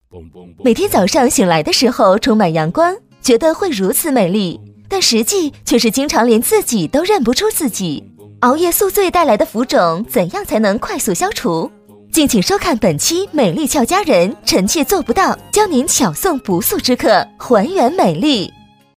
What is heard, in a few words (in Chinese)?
女 栏目包装 导视, 国语女声配音; 美丽俏佳人